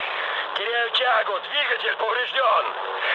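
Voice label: Russian damaged engine mood med, speech; War Thunder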